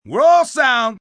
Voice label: Roll Sound, Film, movie, direction, assistant director, male 'Roll Sound', (human voice speaking); Talking Sound Effects